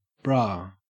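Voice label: "bra", Australian English; pronunciations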